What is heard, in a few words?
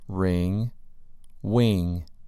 "ring" "wing"